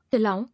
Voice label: "Allow", English